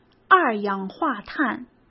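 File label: "二氧化碳", Mandarin Chinese; chinese-flashcards; hsk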